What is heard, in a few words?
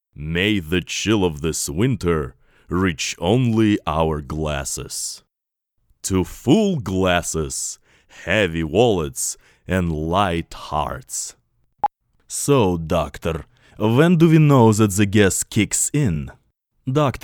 Strong Russian Accent